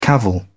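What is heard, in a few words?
"cavil", British English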